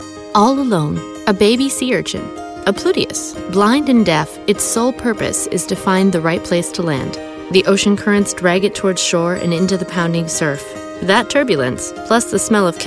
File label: TV nature narration